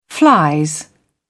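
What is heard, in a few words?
"flies", British English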